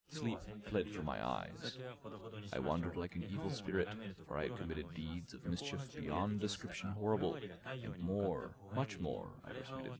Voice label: male4 inst sim